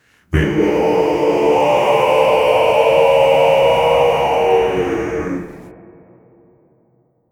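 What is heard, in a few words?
heroic dragon knight shout, voice over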